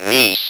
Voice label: "NIECE", Speak & Spell